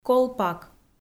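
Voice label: "колпак" Komi, female; audio dict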